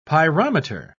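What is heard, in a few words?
"pyrometer", British English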